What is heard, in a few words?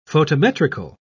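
"photometrical", British English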